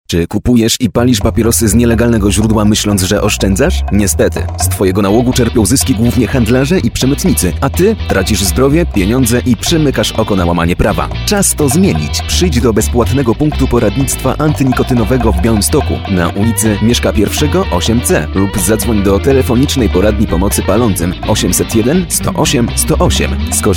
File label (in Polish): SPOT RADIOWY